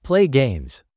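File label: "play games", English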